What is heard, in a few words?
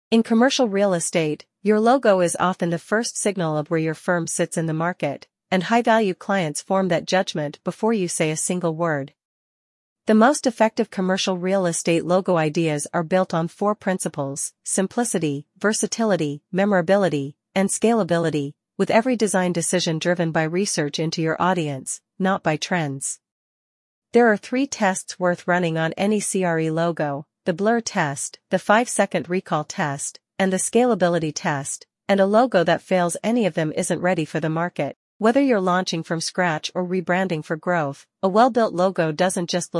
voice over; commercial real estate logo ideas